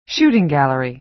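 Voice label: "shooting gallery", American English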